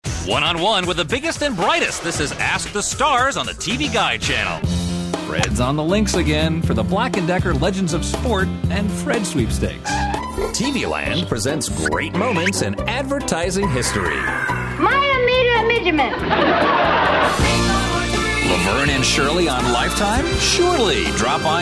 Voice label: TV PROMOS; HBO Family